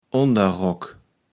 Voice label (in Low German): "Onderrock", Platt